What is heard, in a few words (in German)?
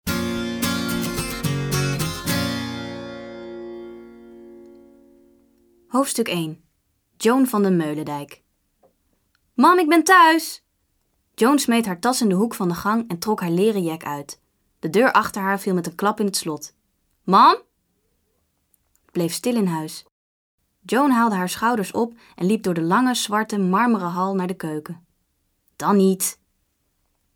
hoerbuch